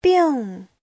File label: "bing4", Chinese; Giáo trình Hán ngữ